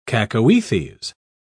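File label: "cacoethes", British English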